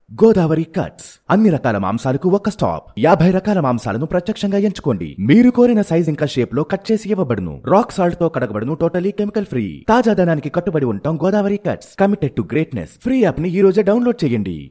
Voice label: Telugu Male